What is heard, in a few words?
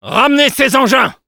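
Spy move up, French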